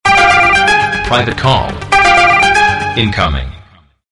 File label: male voice; christian